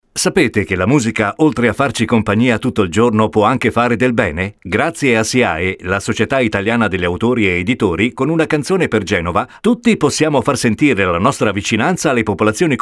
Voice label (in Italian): spot; Siae 30 sec